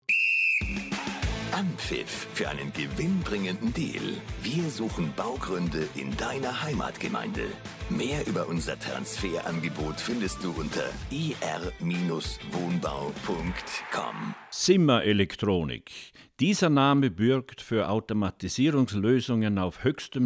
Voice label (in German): Werbedurchsagen; FC-Andelsbuch